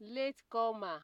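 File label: "latecomer", Nigerian Pidgin, female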